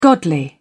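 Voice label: "godly", British English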